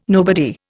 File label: "nobody", Indian